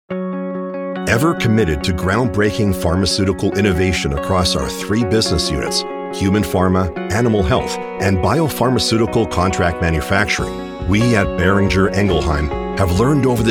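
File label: American English, Medical; voice demos; GoLocalise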